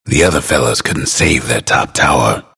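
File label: announcer tower fall enemy top; Bastion announcer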